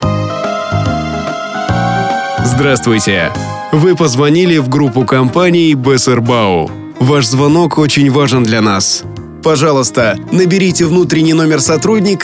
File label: IVR voice